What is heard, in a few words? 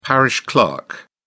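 "parish clerk", British English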